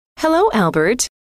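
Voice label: hello, female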